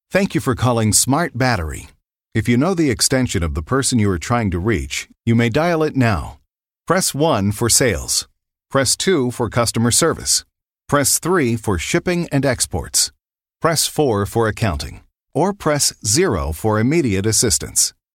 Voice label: voxmail